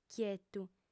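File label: "chietu", female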